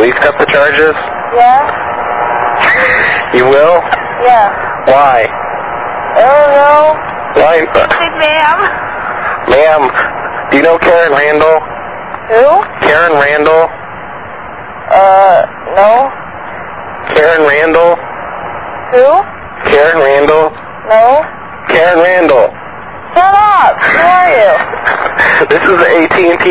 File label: collect call, Prank Calls